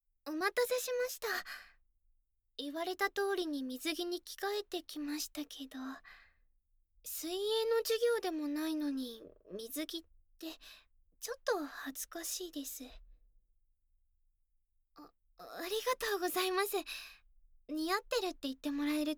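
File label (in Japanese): スク水アロマオイル研修【ローション密着マッサージ・耳舐め・キス・セクハラ】(効果音なし); 店長の悪戯にドキドキ♪; JKリフレ『体験裏オプセクハラ研修』～れろれろ耳舐め特別指導♪～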